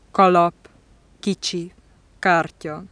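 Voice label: velar stop voiceless initial, hungarian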